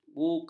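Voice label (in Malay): "buka", Malay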